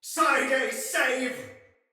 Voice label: See Ally Die, Soldier Male; Sinecure Soldier Male A

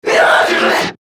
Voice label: Struggle, Battle Barks; Warframe; Grineer Manic

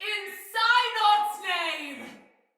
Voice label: Pre Death Sinecure Soldier Female; Battle; Barks; Dialog

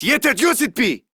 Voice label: Surprised Male, Battle Barks; en; Modular Corpus

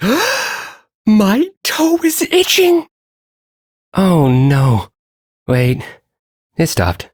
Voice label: Ambient Sedentary, en; Duviri

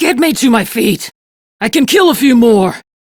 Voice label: Crew Need Revive, Steel Meridian Female; Dialog; en; Warframe; RailjackCrew

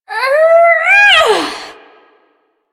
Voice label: Ability Throw, Barks; Warframe; Corpus Lich; Persona D

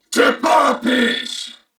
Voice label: Reload, Corpus Venus Male; Dialog; Barks; Battle; Warframe; CorpusVenusMaleA

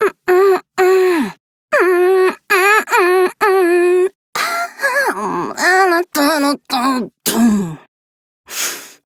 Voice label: Idle Barks, en; Aoi

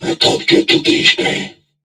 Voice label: Patrol, Crewmen, en; Warframe; Corpus Crewmen; Crewman E; Dialog Barks Battle

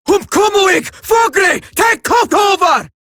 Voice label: Cover Me Eximus Grineer Female; Warframe; Battle Barks

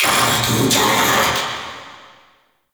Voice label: Vocal Verb; Summon; Warframe; Uriel; Summon Vythelas

